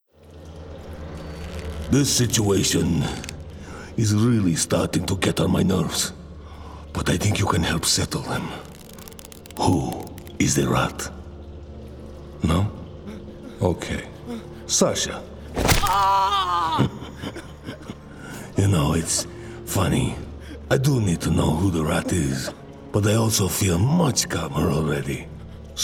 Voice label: Gaming Russian Gangster